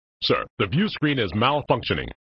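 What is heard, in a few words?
"Sir, the viewscreen is malfunctioning", male voice; Worf; flipper; sttng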